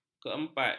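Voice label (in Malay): "keempat", Malay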